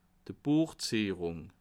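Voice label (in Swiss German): "buechzierung", Bas Rhin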